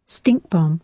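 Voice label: "stink bomb", British English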